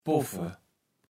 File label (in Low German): "poffe", kaevels platt